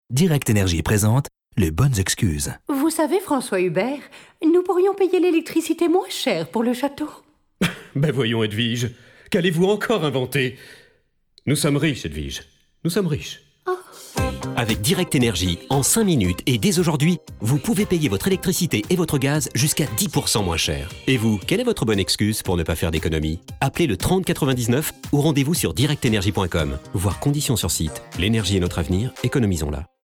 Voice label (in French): pub comedie; direct energy